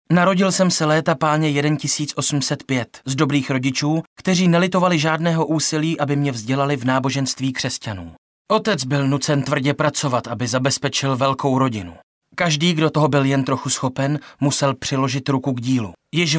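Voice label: male Czech documentary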